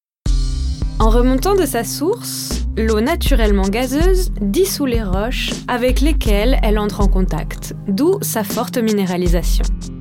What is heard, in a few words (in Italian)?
Documentario